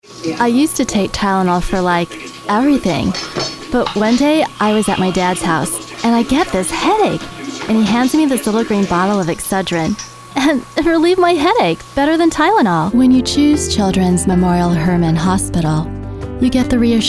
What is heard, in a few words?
Commercial